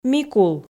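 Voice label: "микул", Komi, female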